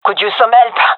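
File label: request help, female; vo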